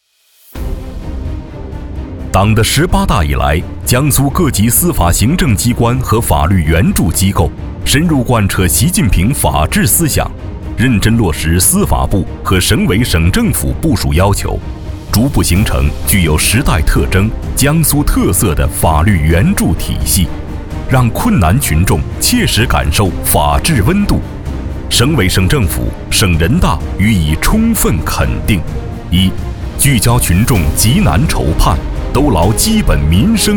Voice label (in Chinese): 男 专题